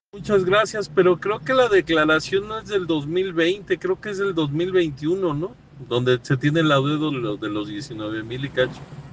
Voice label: whatsapp ptt